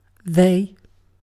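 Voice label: "they", British English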